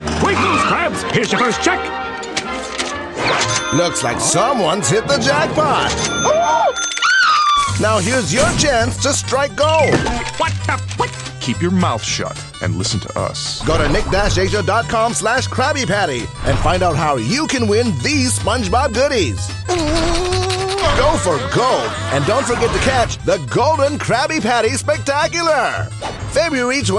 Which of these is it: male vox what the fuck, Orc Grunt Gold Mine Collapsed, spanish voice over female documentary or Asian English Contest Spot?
Asian English Contest Spot